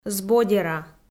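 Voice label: "збодера", Komi, female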